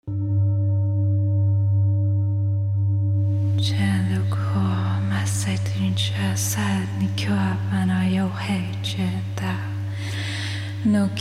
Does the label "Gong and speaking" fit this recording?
Yes